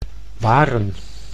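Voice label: "waren", Dutch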